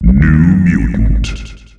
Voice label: "new mutant", announcer male; Unreal Tournament; ut2004